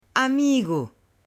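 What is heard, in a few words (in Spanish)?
"amigo", Spanish, male; AppLenguaje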